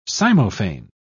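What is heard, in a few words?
"cymophane", British English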